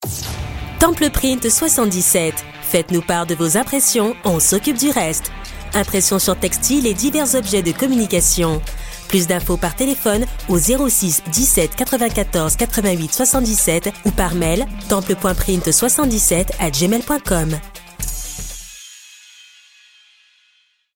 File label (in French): Spot publicitaire radio Affirmé classe promo rassurant convainquant; Temple Print 77.